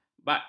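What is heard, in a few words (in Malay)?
"bakti", Malay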